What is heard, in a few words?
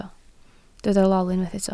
"lol", Welsh